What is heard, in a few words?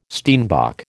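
"steenbok", American English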